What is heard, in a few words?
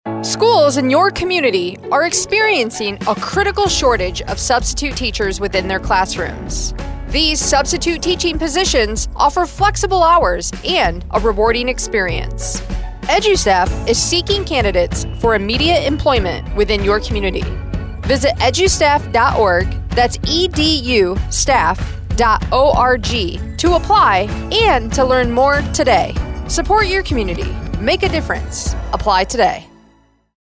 radio ad; edustaff; human resources